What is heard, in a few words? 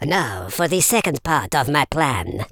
perfect, Super Villain, speech